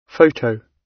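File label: "photo", British English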